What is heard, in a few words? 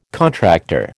"contractor", American